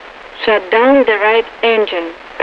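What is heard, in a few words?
switch off right engine, English; Messages; Speech; DCS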